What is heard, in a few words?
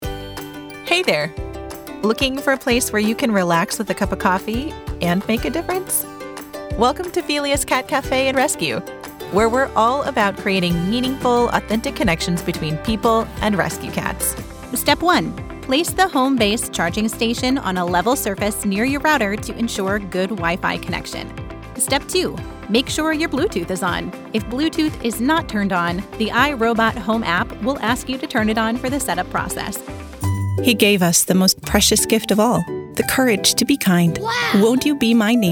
narration